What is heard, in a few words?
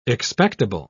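"expectable", British English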